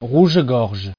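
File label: "rouge-gorge", French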